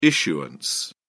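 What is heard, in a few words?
"issuance", British English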